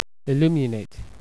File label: "ill", USA